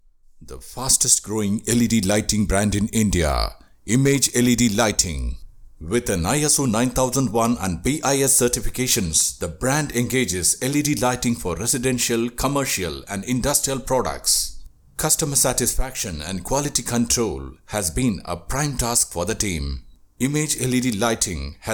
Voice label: ENGLISH EXPLAINER